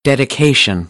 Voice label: "dedication", American; ə - ɪ